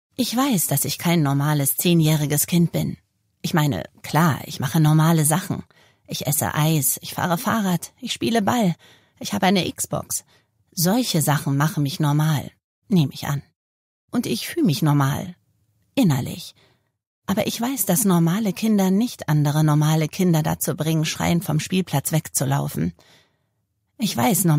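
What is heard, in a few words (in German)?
August Erzählung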